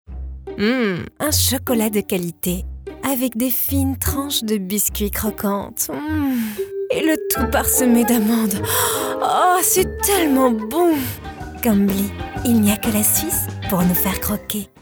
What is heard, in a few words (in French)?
SPOT RADIO Voix chaleureuse sensuelle; Publicité Radio; Voix sensuelle, souriante; Kambly